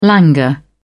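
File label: "languor", British English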